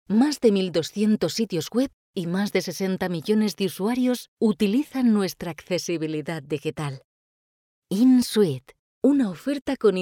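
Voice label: Spanish Spain female narrative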